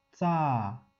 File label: "tsa", Wu Chinese; romanization